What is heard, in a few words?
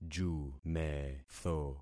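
"jumetho", concatenated syllables; Affixing experiment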